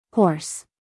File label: "horse", us female